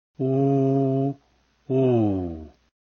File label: o hat, vietnamese vowels